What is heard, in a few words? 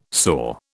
"soar", British